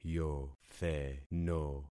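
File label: "yotheno", concatenated syllables; Affixing experiment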